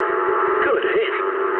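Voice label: English bomb success mood low; speech; War Thunder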